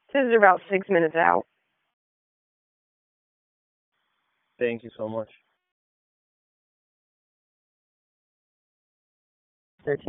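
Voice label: Police Dispatch; Raleigh Police North District Disp; wake; p25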